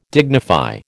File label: "dignify", American